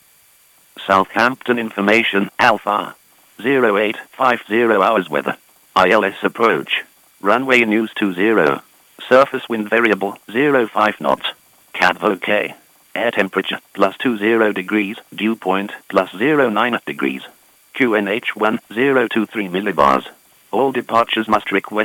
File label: ATIS Southampton